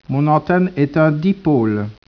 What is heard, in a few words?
speech, French